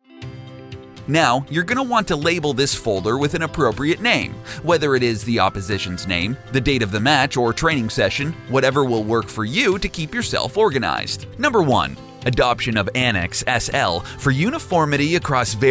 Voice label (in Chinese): 宣传片 欢快